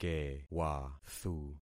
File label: "kewathu", concat syllables; Affixing experiment